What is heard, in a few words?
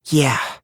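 "yeah" spoken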